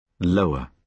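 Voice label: "lower", British English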